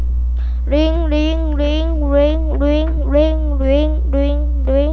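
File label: "ring", spoken word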